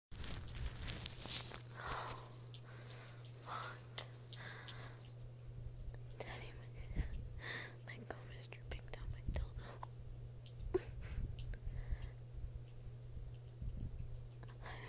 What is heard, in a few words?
F4M begging for it whispering quiet kinda wet sounds; daddy mentions; solo